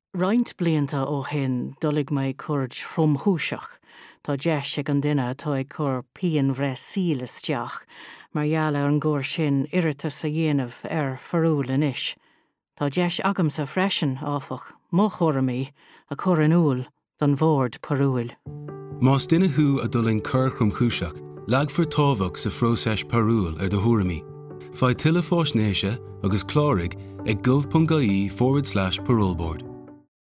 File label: Your voice Irish